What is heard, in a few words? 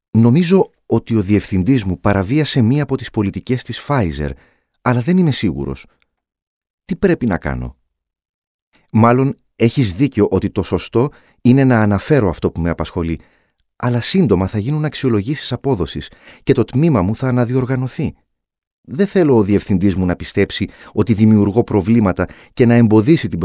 greek corporate